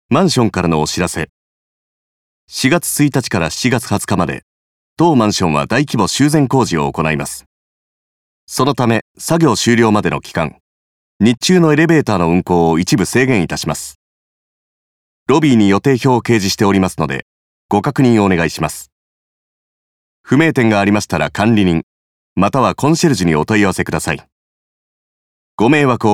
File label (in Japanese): 告知ナレーション